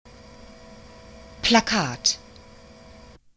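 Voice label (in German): "Plakat", German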